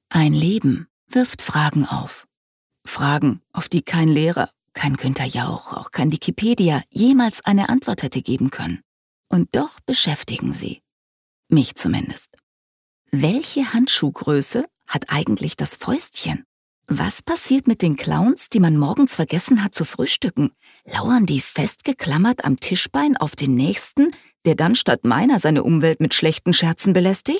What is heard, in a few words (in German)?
Schauspiel Sanft